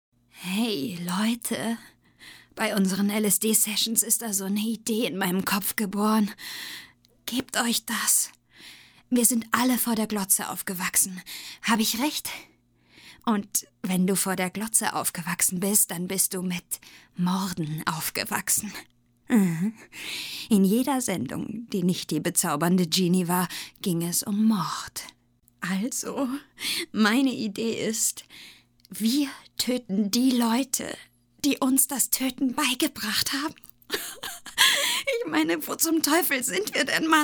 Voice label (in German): synchron; Psycho Trip